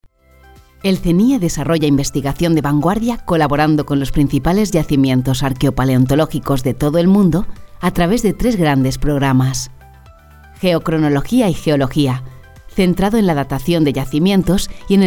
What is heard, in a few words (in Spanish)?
Espanol Espana Femenina Adulta